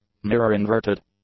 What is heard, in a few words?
"mirror-inverted", English; adjectives